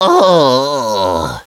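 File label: "ohhhhhhhhhhhh", male; DS; ML BOWSERS INSIDE; Luigi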